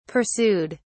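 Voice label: "pursued", British English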